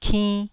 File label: "kinn1", taiwanese syllables